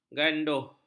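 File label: "ganduh", Malay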